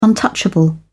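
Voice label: "untouchable", British English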